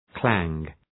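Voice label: "clang", English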